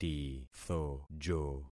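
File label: "tithojo", concatenated syllables; Affixing experiment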